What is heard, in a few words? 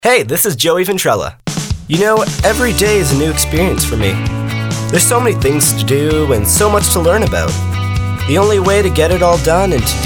commercial male